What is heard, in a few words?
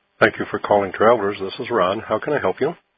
Voice Mail